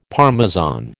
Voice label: "Parmesan", American

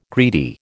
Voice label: "greedy", American

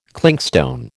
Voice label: "clinkstone", American

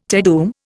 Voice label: "Te Deum", Indian

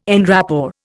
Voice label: "en rapport", Indian

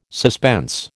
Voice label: "suspense", American

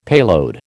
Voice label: "payload", American

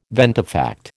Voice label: "ventifact", American

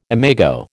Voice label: "imago", American

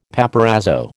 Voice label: "paparazzo", American

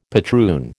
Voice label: "patroon", American

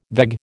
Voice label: "veg", American

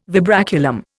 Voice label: "vibraculum", Indian

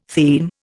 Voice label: "theme", Indian

